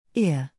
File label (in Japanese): イギリス英語ear